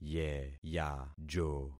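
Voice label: "yeyajo", concatenated syllables; Affixing experiment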